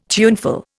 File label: "tuneful", Indian